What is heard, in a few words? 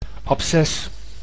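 "abces", Dutch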